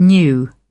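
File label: "new", British English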